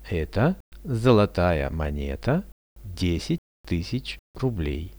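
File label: "this is a gold coin 10000 rubles", Russian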